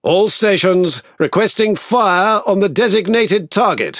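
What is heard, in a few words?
Speech Priority Target, British English; World Of Warships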